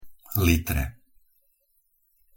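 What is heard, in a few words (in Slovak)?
"litre", Slovak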